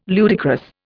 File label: "ludicrous", Indian